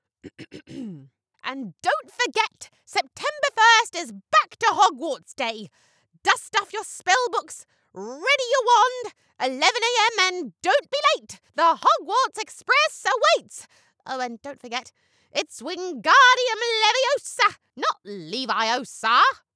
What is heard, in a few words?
english british impersonations; britische Schauspielerin und Synchronsprecherin; Neuseeland; Englisch (International) Englisch (Britisch) Englisch (Neuseeland)